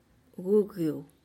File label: "gugiyu" small bird that sings a sad song